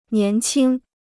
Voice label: "年轻", Chinese

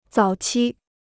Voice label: "早期", Chinese

